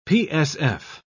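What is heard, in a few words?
"psf", British English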